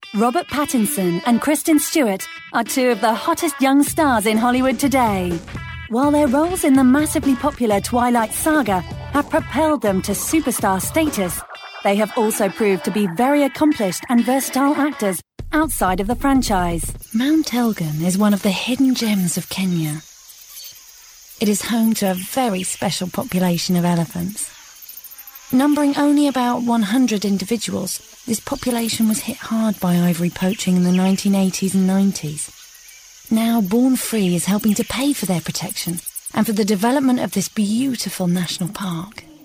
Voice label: Narration

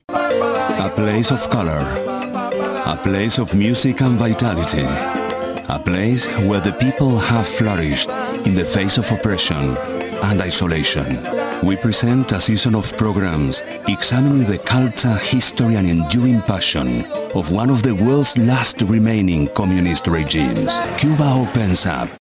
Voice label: ENG

